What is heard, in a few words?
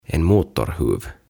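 "En motorhuv" Swedish; Konepelti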